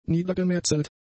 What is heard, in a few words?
"niedergemetzelt", German; adjectives